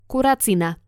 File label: "kuracina", slovencina